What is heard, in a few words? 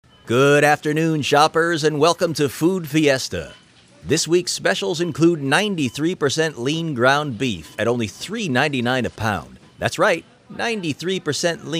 in store promo; food fiesta